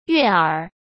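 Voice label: "悦耳", Mandarin Chinese; hsk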